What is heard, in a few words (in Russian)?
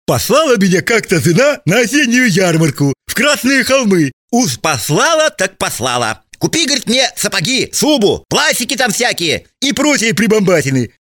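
Muzhik Padal proshlogodnii sneg, man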